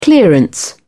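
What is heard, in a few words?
"clearance", English, uk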